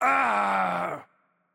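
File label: Turkish hurt heavy voice; bloom minigame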